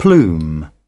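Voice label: "plume", British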